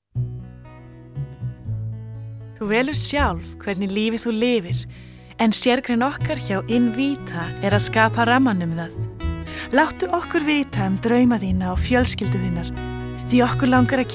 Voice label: female Icelandic compilation